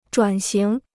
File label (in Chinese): "转型", Chinese